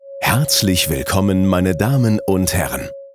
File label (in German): DE M, eventansagen